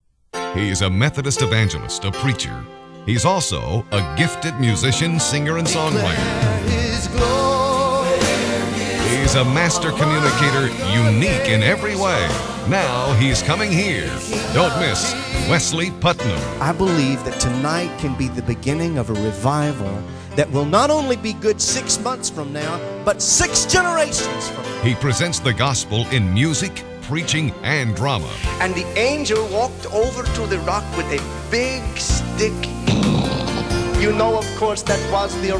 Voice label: radio spot